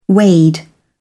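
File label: "wade", British English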